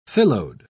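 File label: "phyllode", British English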